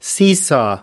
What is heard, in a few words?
"seesaw", us